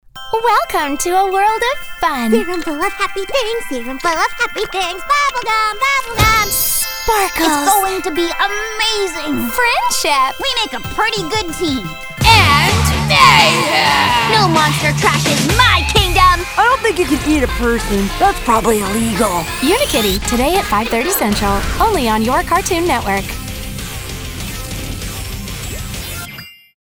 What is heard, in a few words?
Promo; Unikitty